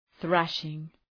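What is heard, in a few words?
"thrashing", English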